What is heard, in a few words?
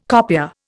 "copier", Indian